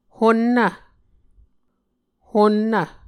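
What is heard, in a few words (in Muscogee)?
"honnv", Muscogee word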